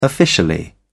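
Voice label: "officially", British English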